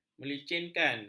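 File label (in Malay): "melicinkan", Malay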